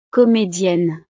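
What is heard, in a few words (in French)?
"comedienne", French; profesiones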